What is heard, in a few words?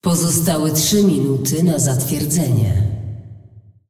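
announcer female tournaments lockin 10min, hu_hu; lol; clash vo; pl pl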